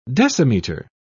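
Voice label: "decimeter", British English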